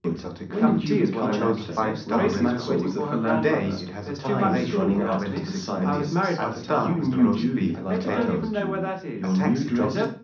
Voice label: male mix; SiSEC10; underdetermined